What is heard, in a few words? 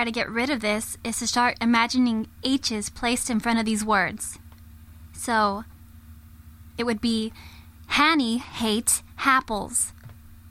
hard glottal